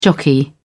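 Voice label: "jockey", British English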